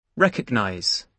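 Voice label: "recognize", British English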